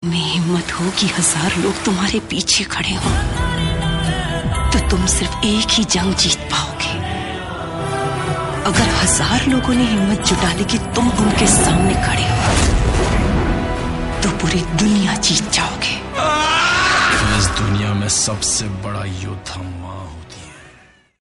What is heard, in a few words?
Hindi Dialogue